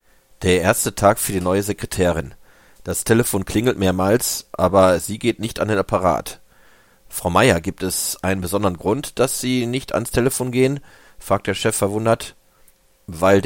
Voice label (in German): telefonanruf